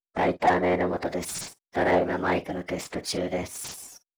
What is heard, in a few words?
Alien